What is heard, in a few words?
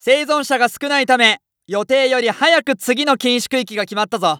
Announce Restricted Accel, JPN; Eternal Return